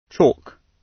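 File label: "chalk", British English; Time, history; Thời gian, lịch sử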